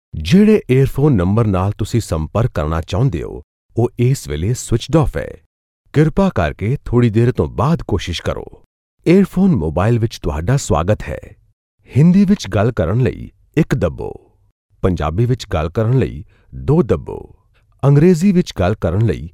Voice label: Punjabi Male